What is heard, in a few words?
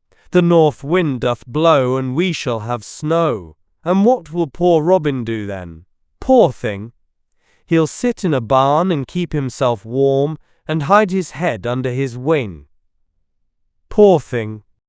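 male British, classic rhymes; The North Wind Doth Blow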